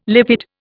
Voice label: "lipid", Indian